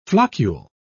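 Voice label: "floccule", British English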